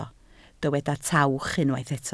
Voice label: "tawch", Welsh